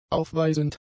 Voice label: "aufweisend", German; adjectives